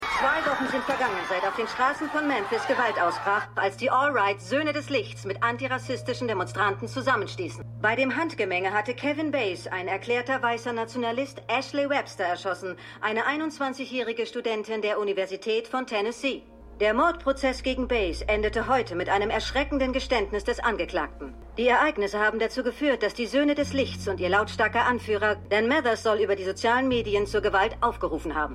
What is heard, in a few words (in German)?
Nachrichtensprecherin; Bluff City Law